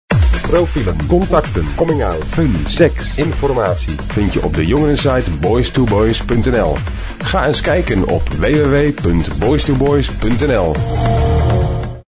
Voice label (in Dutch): radiospot